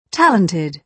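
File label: "talented", British English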